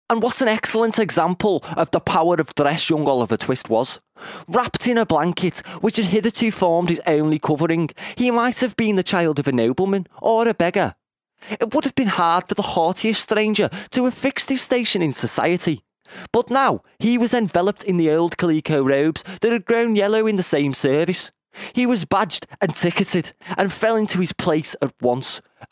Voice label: scouse accent parody

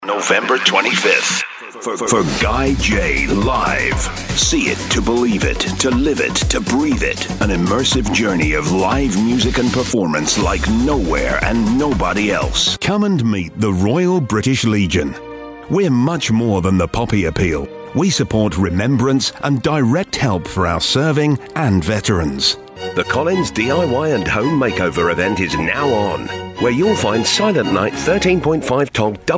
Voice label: general commercial

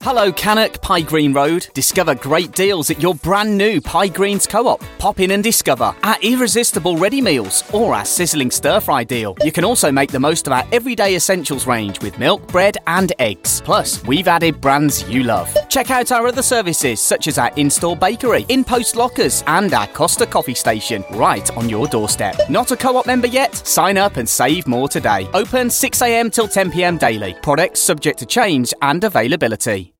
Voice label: local radio advert

